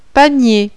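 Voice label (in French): "PANIER", French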